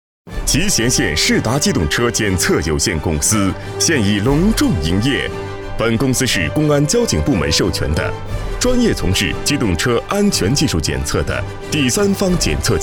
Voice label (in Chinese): 宣传高昂嘹亮